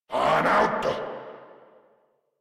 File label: Attack, Grineer Male